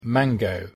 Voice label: "mango", English

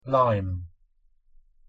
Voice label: "lime", English

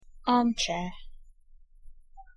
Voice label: "armchair", English; the home

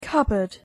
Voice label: "cupboard", English; the home

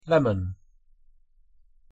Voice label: "lemon", English

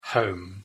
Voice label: "home", English; the home

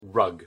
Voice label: "rug", English; the home

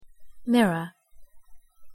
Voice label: "mirror", English; the home

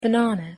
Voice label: "banana", English; fruit and vegetables

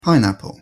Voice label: "pineapple", English

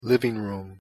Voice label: "living room", English; the home

